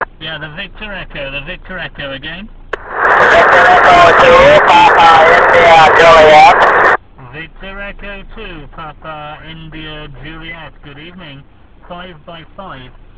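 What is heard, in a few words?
amateur radio contact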